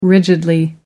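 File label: "rigidly", British English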